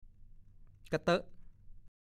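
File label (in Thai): "กะเต้อะ" ภาษาโคราช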